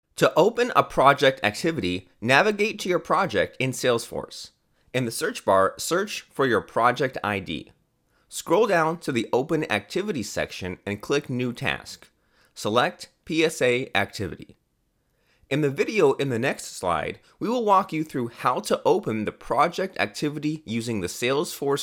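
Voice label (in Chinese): 叙述, 哈萨克语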